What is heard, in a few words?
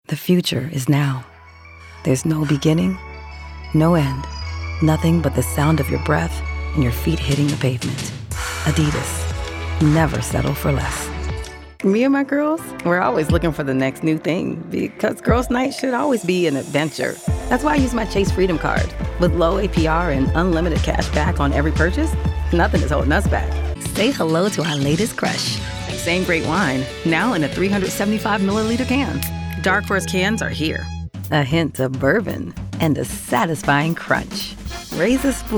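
commercial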